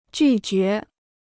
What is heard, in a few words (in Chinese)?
"拒绝", Chinese